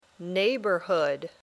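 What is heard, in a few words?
"neighborhood", English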